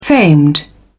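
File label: "famed", English, British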